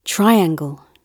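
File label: "triangle", British English; slovicka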